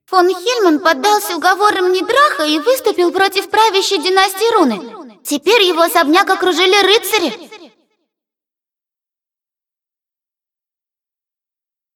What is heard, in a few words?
cinematic voice, ru; lineage2